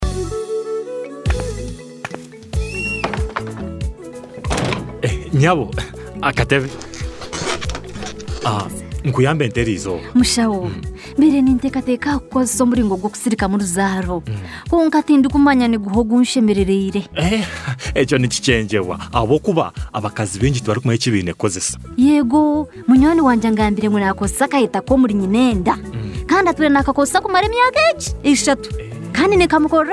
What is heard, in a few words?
IUD Health Worker Spot Runyankore Rukiga